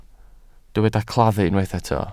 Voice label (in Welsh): "claddu", Welsh